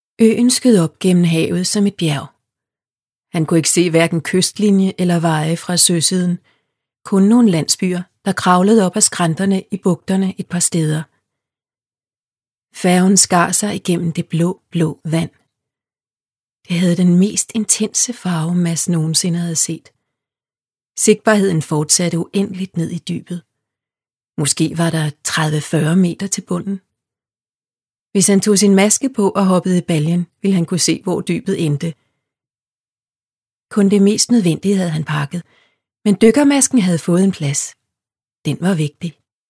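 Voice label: Danish, Audiobook, female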